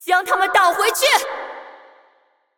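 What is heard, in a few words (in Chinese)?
assist, Chinese; Irelia; bloom minigame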